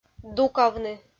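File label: "дукавны", Komi, female; kpv audio dict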